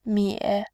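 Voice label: "mie", Mandarin, tone 3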